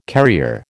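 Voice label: "carrier", American English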